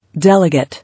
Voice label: "Delegate", English

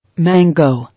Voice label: "Mango", English

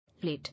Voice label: "Late", English

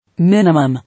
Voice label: "Minimum", English

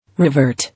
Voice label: "Revert", English

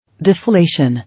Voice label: "Deflation", English

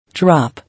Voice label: "Drop", English

